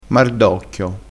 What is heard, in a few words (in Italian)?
"mardocchio", crespinese